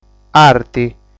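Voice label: "Arti", Sardinian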